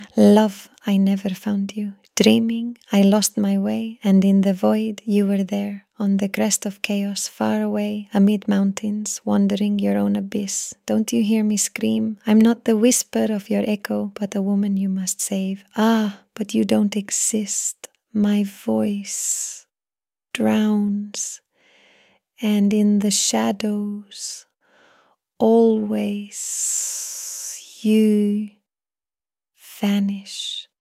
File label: agony